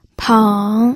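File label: "peng", Mandarin Chinese, third tone; pinyin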